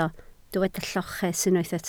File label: "lloches", Welsh